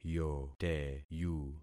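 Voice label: "yoteyu", concatenated syllables; Affixing experiment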